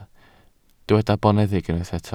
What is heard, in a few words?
"boneddig", welsh